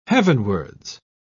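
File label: "heavenwards", British English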